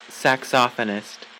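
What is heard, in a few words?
"saxophonist", American English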